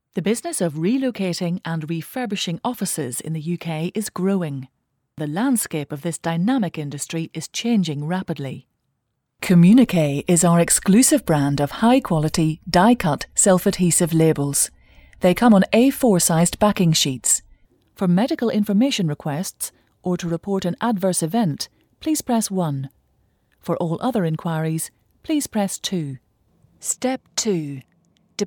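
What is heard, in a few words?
corporate narration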